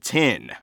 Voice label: Announcer Count 10; Evan